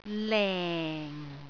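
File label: "leng4", Cantonese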